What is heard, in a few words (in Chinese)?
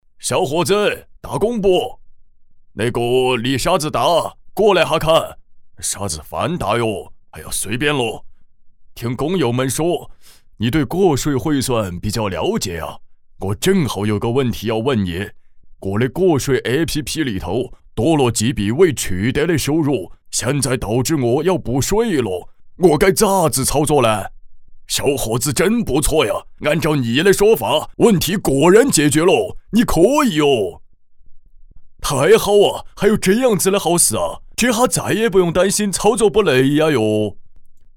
个税介绍川普大爷